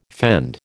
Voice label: "fend", American

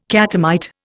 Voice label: "catamite", Indian

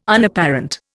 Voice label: "Unapparent", Indian

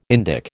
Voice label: "Indic", American

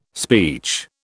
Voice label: "speech", British

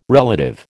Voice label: "relative", American

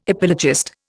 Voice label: "epilogist", Indian

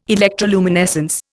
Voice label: "electroluminescence", Indian

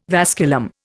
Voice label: "vasculum", Indian

